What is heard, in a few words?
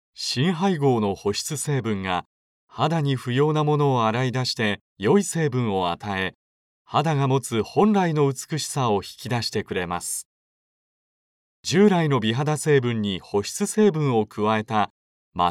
male